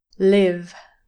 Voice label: "live", English pronunciation